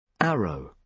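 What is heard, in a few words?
"arrow", British English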